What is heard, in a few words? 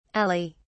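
"ali", British English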